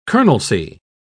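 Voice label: "colonelcy", British English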